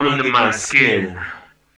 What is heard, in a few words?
male fx vox, vocal house, f#; PS-28 Vocal House Samples